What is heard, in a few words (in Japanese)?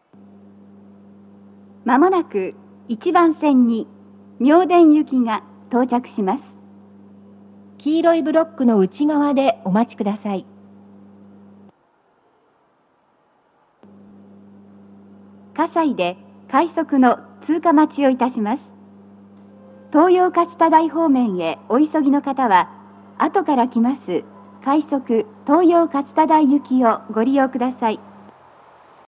接近放送, 放送は「各駅停車 妙典行」です。葛西で、快速 東葉勝田台行きの通過待ちを致します。 BOSE天井型での収録です。; 収録者:デハ様